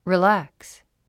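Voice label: "relax", English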